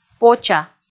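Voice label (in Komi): "поча" Komi, female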